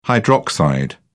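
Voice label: "hydroxide", British English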